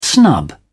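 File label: "snub", British English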